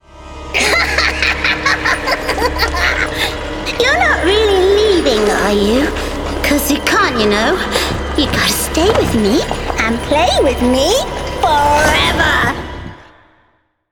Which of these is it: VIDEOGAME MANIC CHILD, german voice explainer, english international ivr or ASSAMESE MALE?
VIDEOGAME MANIC CHILD